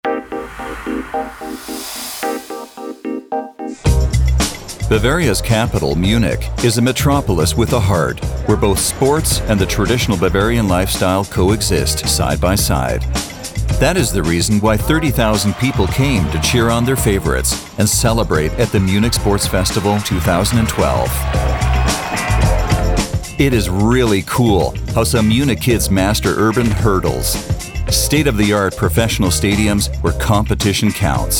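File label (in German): Canadian Kommentar Neutral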